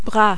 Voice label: "bras", French